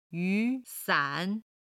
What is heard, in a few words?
"yu2san3", chinese